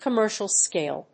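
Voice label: "com mercial scale", English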